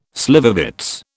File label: "slivovitz", British